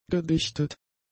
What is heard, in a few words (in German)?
"gedichtet", German; adjectives